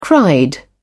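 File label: "cried", British English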